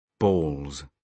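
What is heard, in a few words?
"balls", British English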